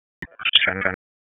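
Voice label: Sheriff's Office Dispatch; WSO DISP 1; wake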